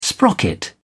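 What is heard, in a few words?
"sprocket", English, British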